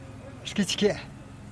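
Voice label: "xkiskgee", Teotitlán del Valle Zapotec; navel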